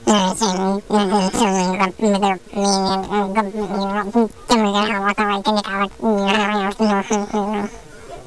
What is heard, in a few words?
GRUMBLE